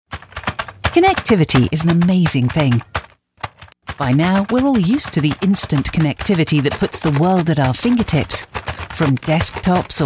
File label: corporate videos